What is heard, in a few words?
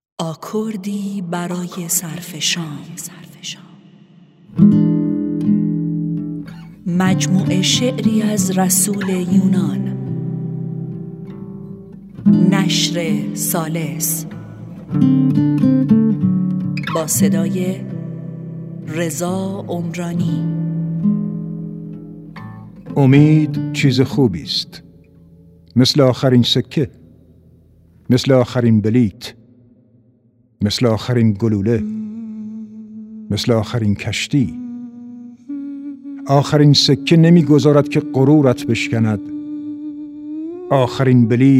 announce